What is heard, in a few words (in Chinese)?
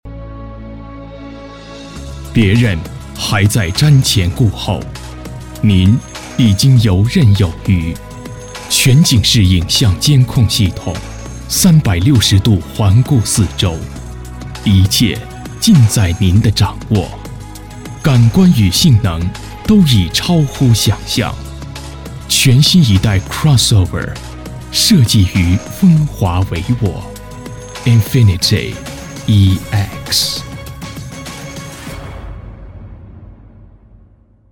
英男 中文 广告 品牌; 英菲尼迪